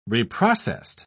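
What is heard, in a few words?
"reprocessed", British English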